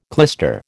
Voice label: "clyster", American